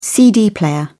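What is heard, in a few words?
"cd player", British English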